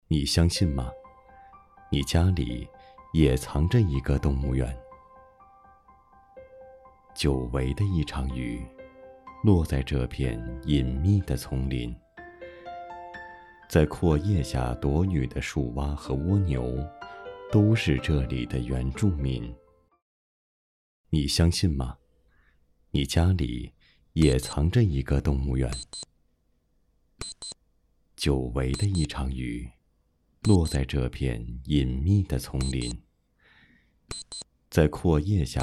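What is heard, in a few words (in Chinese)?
旁白严肃; 涅槃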